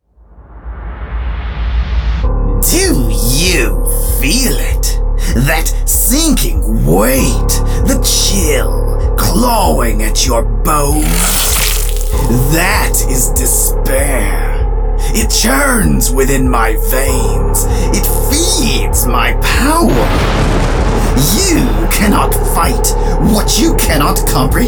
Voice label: Voiceover Videogame Villain